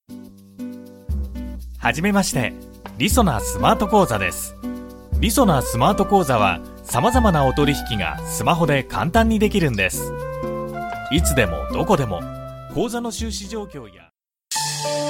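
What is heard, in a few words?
Japanese, General; GoLocalise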